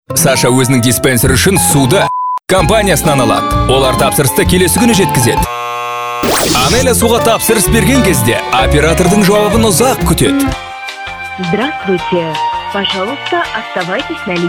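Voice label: Kazakh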